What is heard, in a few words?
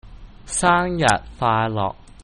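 happy birthday chinese cantonese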